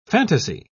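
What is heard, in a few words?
"phantasy", English, British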